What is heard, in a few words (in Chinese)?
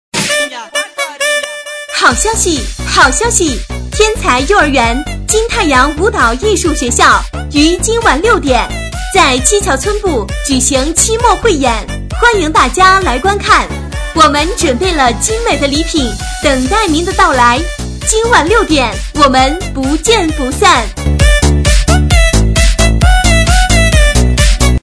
女声幼儿园游街广告